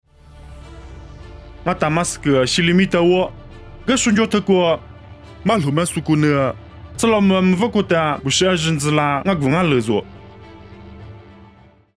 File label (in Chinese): 凉山彝语; 地中海大马士革; nuosu